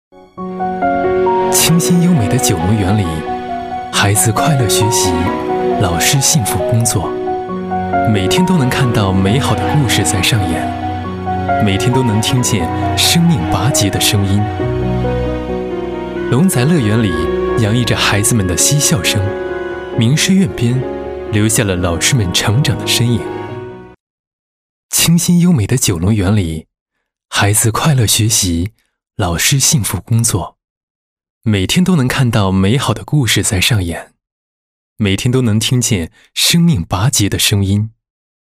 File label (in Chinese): 男 宣传年轻; 九龙园